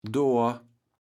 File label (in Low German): "dor", kaevels platt